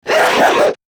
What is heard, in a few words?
Struggle, Battle Barks; Warframe; Grineer Manic